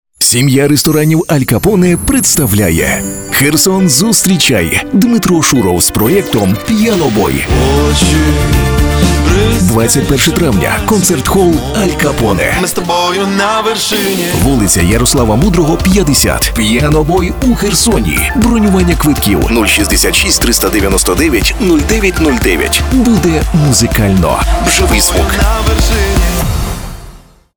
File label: ukrainian movie trailers